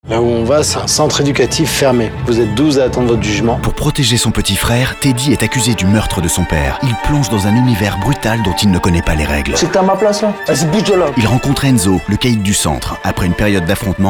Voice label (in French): spot radio ton dramatique et rapide; Mon Frère Film